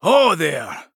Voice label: vo greetings